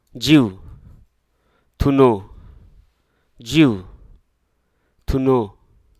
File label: "donate", hruso